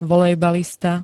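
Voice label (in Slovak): "volejbalista", Slovenské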